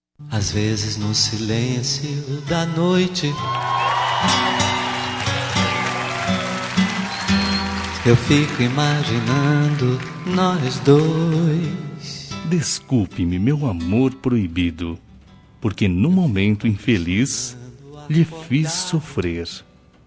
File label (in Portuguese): ROM DESCULPA PARA AMANTE MASC, Telemensagens; Desculpas; Telemensagens 2017